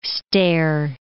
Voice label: "stair", English

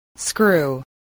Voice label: "screw", english spelling

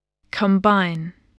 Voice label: "combine", voice

